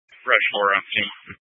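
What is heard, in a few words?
Incident Tac 1, Scanner audio recording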